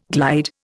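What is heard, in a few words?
"glider", Indian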